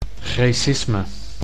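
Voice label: "greacisme", Dutch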